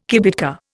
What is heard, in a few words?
"kibitka", Indian